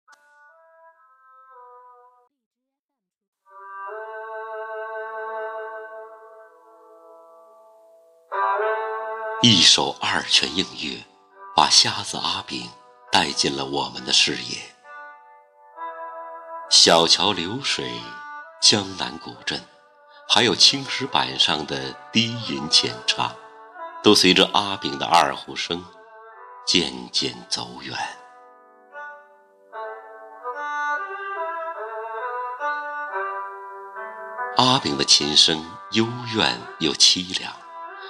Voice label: 人物旁白; 阿炳

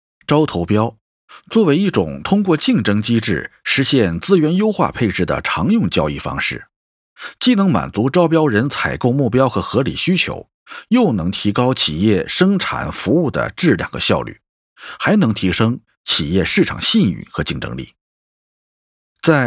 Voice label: 课件

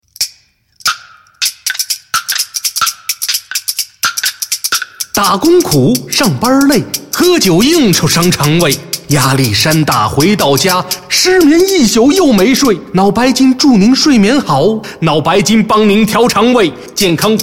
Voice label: 快板; 脑白金

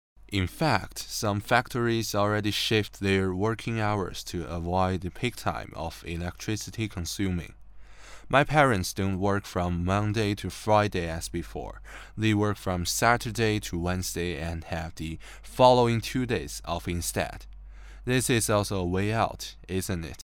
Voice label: 英语

